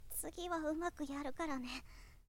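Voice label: "tugihaumakuyarukarane", Japanese, boy; shonen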